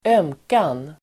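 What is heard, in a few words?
"ömkan", Swedish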